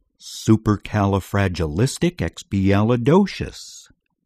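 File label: "supercalifragilisticexpialidocious", English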